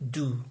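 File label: "do", Nigerian Pidgin, male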